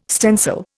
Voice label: "stencil", Indian

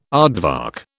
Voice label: "aardvark", British

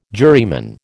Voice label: "juryman", American

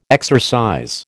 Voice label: "exercise", American

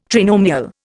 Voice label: "trinomial", Indian

